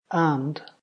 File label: "and", ulster; jetpackLearningPlatform; story-arranger